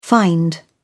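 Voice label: "find", British English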